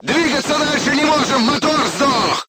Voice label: voice message engine destroyed, crew tanks russian